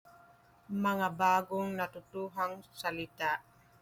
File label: Tagalog